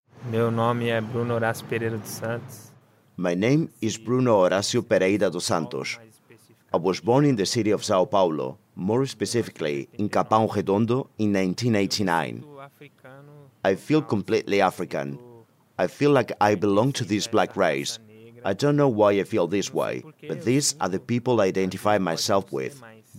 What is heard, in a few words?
Spanish EU